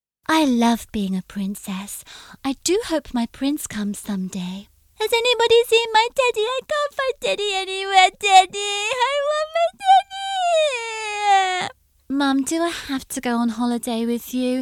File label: character